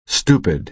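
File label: "stupid", American English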